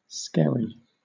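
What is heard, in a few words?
"skerry" English, British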